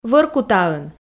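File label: "Вӧркутаын", Komi, female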